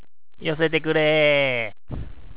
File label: "yosete", HIROSHIMA ben; よせてくれぇ (仲間に)いれてくれ